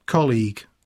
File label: colleague, rythme; poitiers